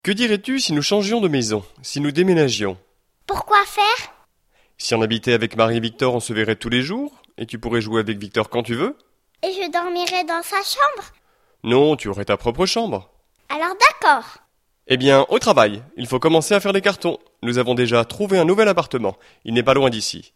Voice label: dialogue, French